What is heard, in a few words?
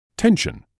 "tension", English